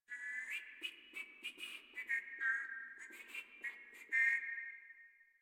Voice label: Patrol Grineer Male, Barks, Battle